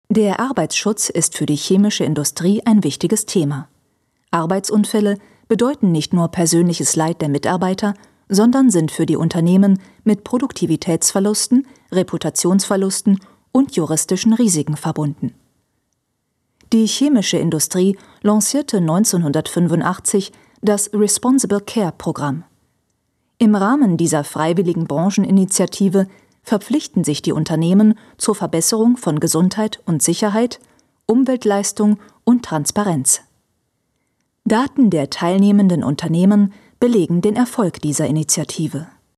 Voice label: e-learning german